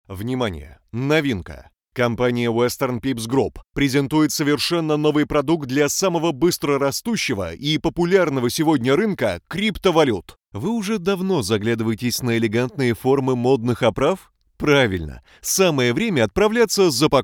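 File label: Russian Russia male upbeat; russian voice over talent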